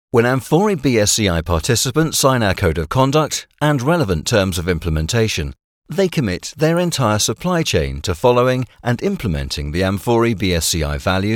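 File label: E-learning, British Male Voice Over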